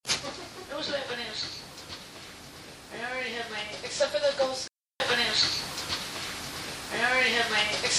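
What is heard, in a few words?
3rd floor voice, radio voices recorded "live"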